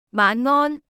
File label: Cantonese Good evening; Greetings